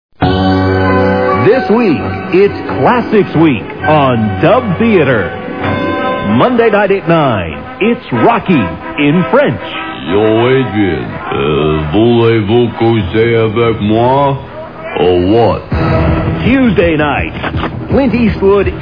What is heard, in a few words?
Commercials; Dub Theater; Freekin Weird Audio